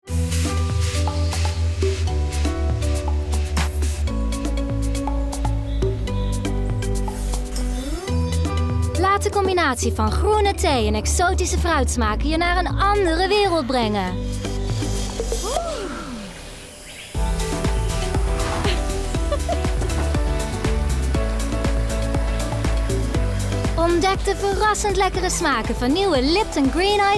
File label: female dutch exotic hike tv commercial; lipton